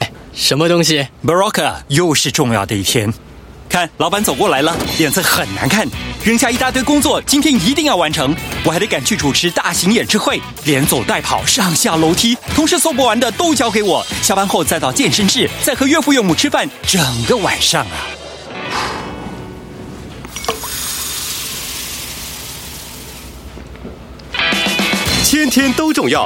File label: Mandarin Performance Energetic, Male; China; Berocca